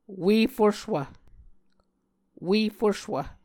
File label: "ue fuswv", Muscogee